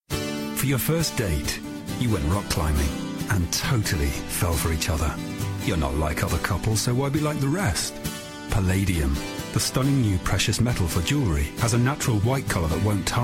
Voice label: Palladium jewellery advert; John Bull